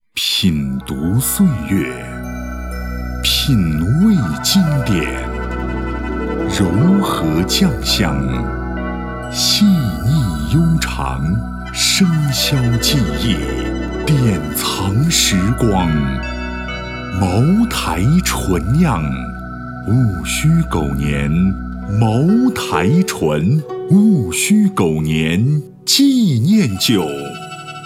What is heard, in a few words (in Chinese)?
男国 广告 酒类 大气; 茅台醇